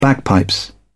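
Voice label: "bagpipes", British English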